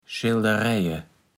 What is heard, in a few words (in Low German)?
"Schaeldereje", Platt